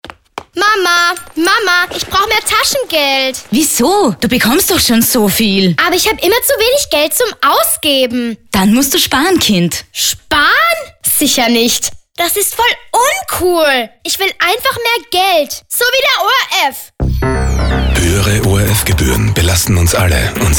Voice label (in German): radiospot